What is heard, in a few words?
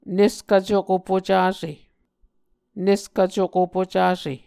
"neskv cuko pucase", Muscogee; Mvskoke Words with Voicing Consonants; Martin, Jack B., and Margaret McKane Mauldin. 2023. A Dictionary of Creek/Muskogee; SIL International